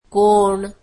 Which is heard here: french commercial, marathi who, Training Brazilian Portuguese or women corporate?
marathi who